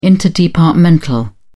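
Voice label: "interdepartmental", English, British English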